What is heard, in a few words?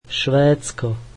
slovak Sweden